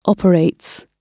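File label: "operates", British English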